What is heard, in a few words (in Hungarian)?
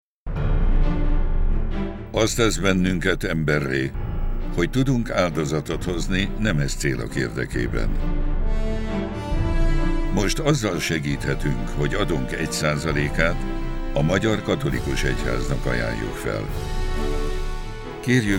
radiospot; egy szazalek